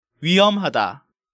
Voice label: "wuiheomhada", Korean